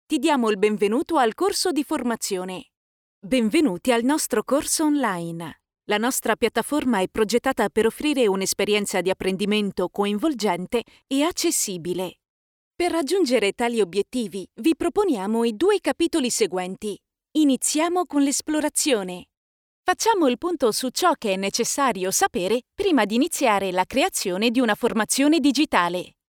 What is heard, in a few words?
ELearning